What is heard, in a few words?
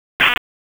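Wake Sheriff's Office Dispatch, p25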